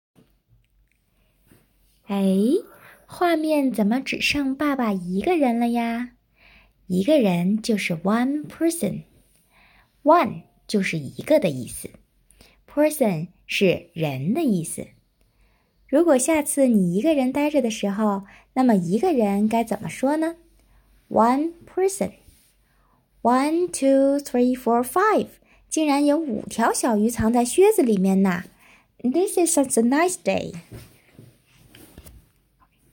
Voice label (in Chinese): 女生童书课件配音